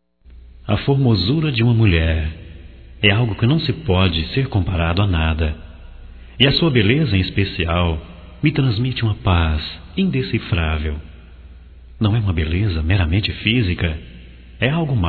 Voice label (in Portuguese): CONQUISTA GERAL, Românticas, masc